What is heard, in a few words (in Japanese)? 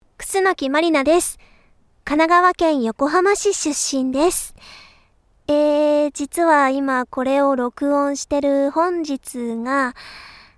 フリートーク(宅録)